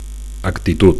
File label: "actitud", Catalan; (f) attitude